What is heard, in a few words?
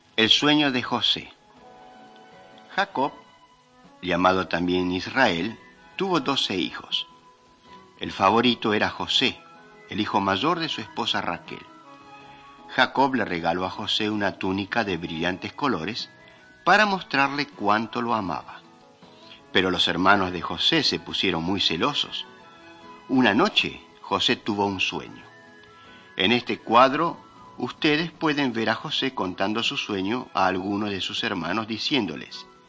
mighty men of god spanish latin america